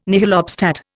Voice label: "nihil obstat", Indian